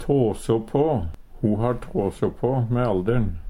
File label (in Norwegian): tåså på, Numedalsmål; bli skrøplegare; Uttrykk; Ho har tåså på me aldern.